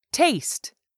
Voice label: "taste", English; 3000 words